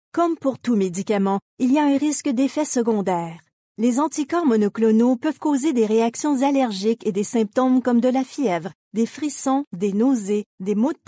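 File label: French Canadian, Corporate; GoLocalise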